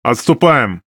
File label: RU Speech Quick Commands Back; World Of Warships; USSR Ovechkin